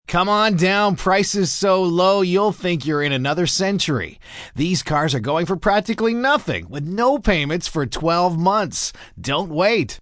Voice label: Prices So Low M, Commercial, used car dealership, male announcer without accent, advertisement; (human voice speaking); Broadcast